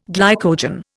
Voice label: "glycogen", Indian